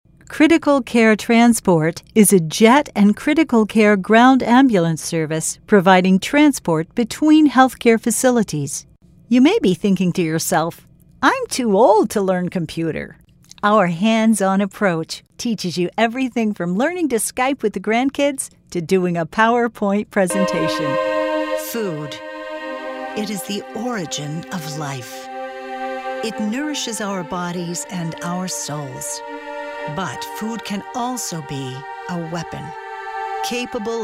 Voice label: Narration